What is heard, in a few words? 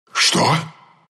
rolling boulder, ru; dota2